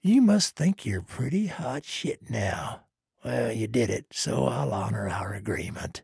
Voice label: trader male neutral quest complete